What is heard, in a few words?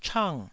"chang4", taiwanese